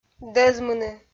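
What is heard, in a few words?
"дӧзмыны", Komi, female; kpv audio dict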